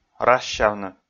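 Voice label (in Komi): "рассявны", Komi, male; audio dict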